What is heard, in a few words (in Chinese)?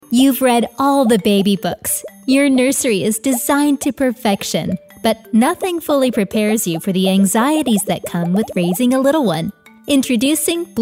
女美式英文广告婴儿用品